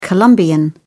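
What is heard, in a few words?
"colombian", British English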